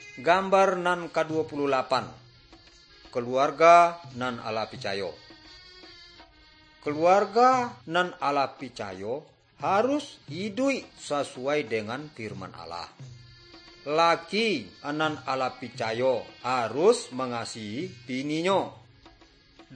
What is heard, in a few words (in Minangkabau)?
good news minangkabau